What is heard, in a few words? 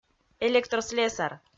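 "электрослесар" Komi, female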